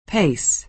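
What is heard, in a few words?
"pace", American English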